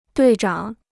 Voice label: "队长", Chinese

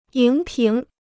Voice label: "荧屏", Chinese

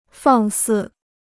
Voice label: "放肆", Chinese

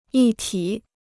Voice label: "一体", Chinese

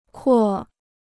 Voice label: "扩", Chinese

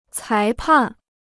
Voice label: "裁判", Chinese

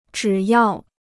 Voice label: "只要", Chinese

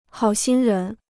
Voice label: "好心人", Chinese

